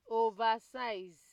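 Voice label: "oversize", Nigerian Pidgin, female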